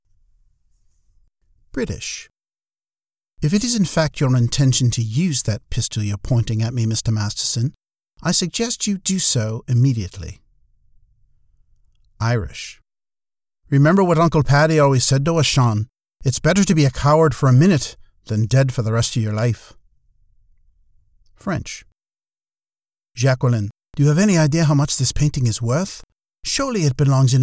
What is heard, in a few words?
Accents